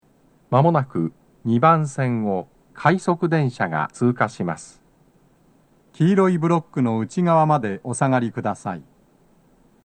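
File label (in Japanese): 接近放送, 男声, 快速電車の通過; 放送は「快速電車の通過」です。BOSEでの収録です。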